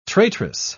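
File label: "traitress", British English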